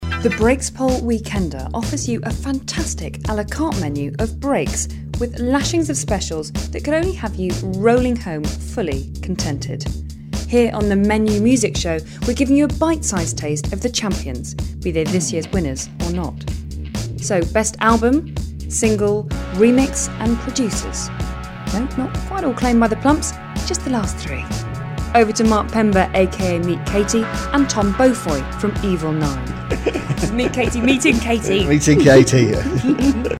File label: Real Natural Radio Interview